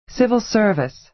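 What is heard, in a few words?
"civil service", American English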